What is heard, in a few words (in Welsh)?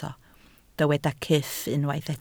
"cyff", Welsh